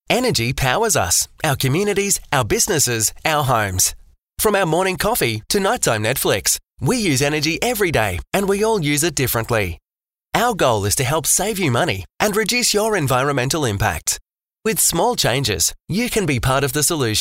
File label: Smart technology explainer